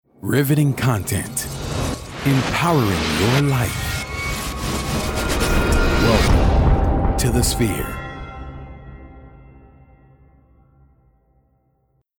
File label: trailer; sphere